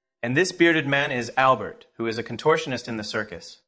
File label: Voice Acting es M